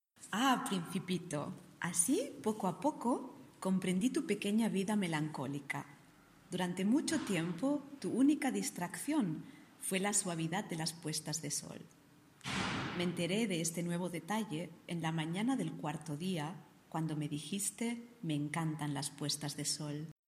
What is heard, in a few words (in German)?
Spanisch Barcelona